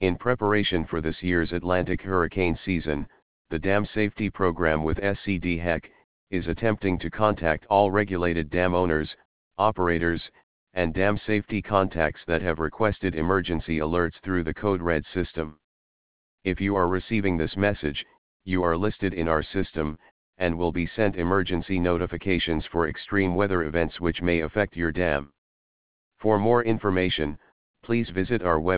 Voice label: Phone Test; Environment